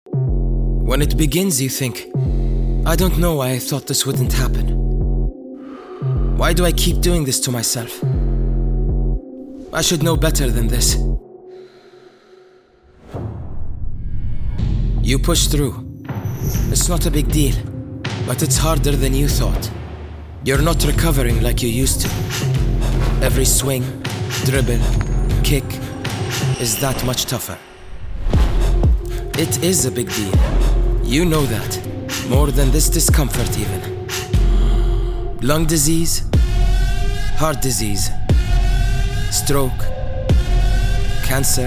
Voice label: male english usa and arabic english with arabic accent anti smoking; who anti smoking campaign